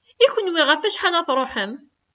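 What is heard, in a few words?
"I kenwi ɣef acḥal ara tṛuḥem?", Kabyle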